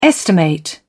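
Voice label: "estimate", British English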